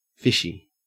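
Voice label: "fishy", Australian English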